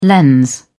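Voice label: "lens", British English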